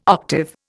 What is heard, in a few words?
"octave", Indian